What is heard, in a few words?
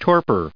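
"torpor", English, us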